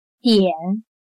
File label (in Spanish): "点", Chino